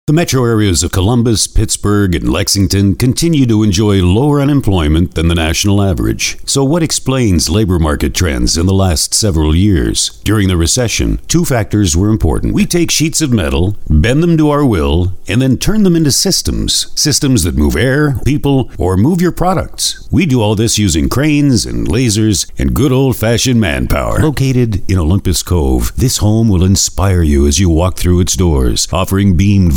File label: Narration